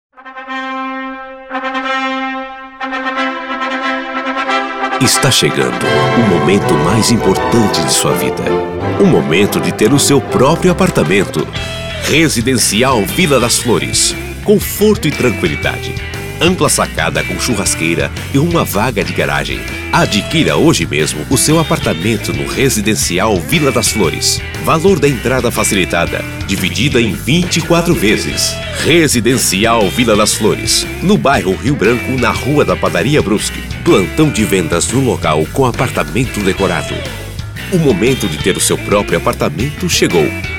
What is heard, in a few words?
spot